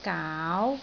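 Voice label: "gaau2", Cantonese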